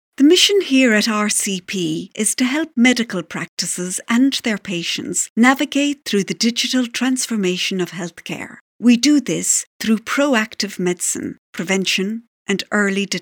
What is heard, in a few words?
Narration Southern Irish; AdvantageIVR